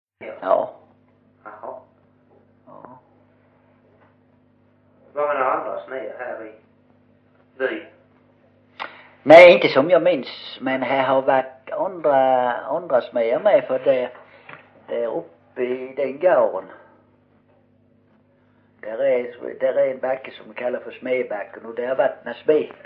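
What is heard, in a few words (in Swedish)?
Osby Skåne, svenska sagor dialekter; Folkminnen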